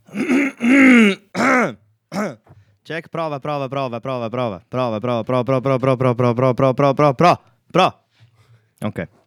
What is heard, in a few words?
Jingle Check Check Check